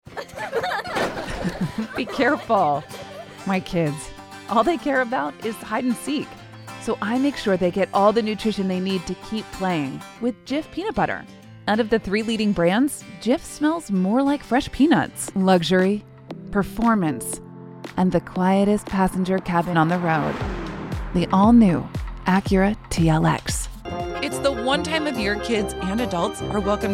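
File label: Commercial, voice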